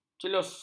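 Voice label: "celus", Malay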